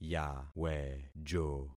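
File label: "yawejo", concatenated syllables; Affixing experiment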